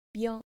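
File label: "byo", Japanese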